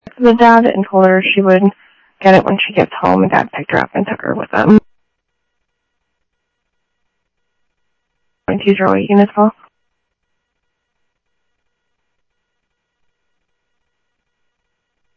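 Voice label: Police Department, scanner audio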